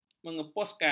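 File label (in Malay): "mengeposkan", Malay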